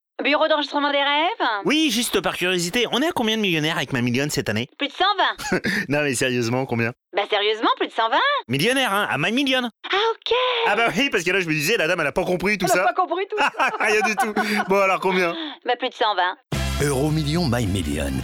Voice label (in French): RADIO; EUROMILLIONS